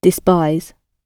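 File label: "despise", British English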